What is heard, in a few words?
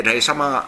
"raisama", minamiyamagata